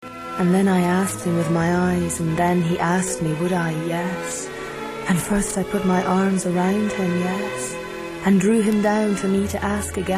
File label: book narration